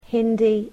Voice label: "Hindi", English